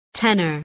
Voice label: "tenor"